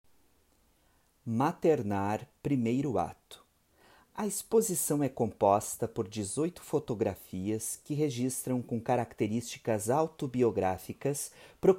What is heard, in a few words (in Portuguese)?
A narração da sinopse